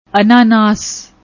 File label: "ananas", Punjabi; ਅਨਾਨਾਸ